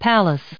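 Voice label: "palace", us English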